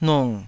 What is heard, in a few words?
"nung3", taiwanese